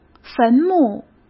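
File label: "坟墓", Mandarin Chinese; chinese-flashcards; hsk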